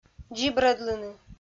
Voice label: "дзибрӧдлыны", Komi

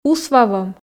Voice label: "усвавом", f

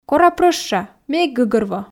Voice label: кора прӧща ме эг гӧгӧрво, f

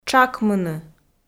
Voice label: "тшакмыны", Komi

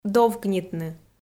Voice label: "довкнитны", Komi

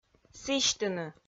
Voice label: "сісьтыны", Komi

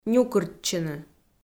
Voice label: "нюкыртчыны", Komi

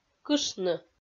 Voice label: "кышны" Komi

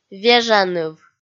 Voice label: "вежаныв" kpv f

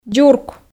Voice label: "дзурк", Komi

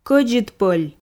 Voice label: "кӧдзыд пӧль" Komi

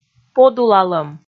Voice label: "подулалӧм", Komi

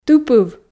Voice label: "тыпыв", Komi

